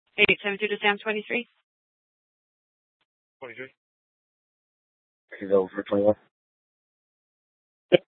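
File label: police dispatch, scanner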